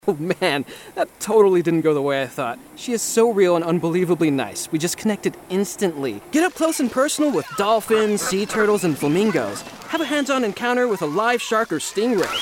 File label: Men Commercial